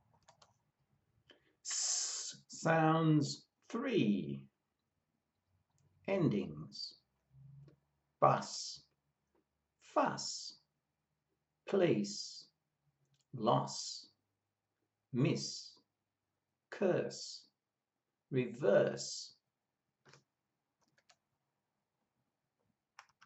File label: S sounds, pronunciation of australian english